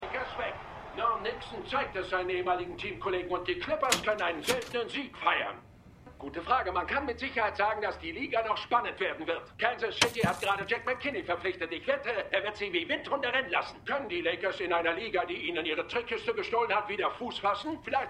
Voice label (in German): Sportmoderator; Winning Time